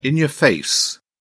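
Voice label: "in-your-face", British English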